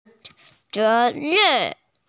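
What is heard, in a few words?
"ze2ren4" Mandarin Chinese, female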